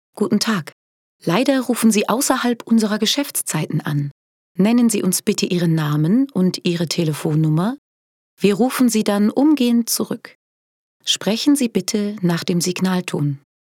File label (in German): telefonpaket anrufbeantworter